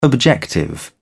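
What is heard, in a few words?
"objective", British English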